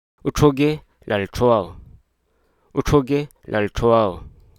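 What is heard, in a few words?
Hruso Aka; divert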